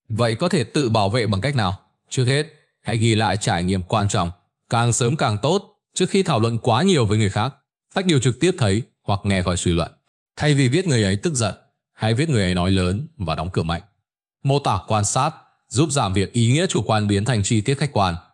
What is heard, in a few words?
narration